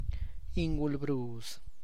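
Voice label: Tlacochahuaya Zapotec; tarantula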